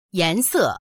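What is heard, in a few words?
"yan2se4", Mandarin Chinese; 颜色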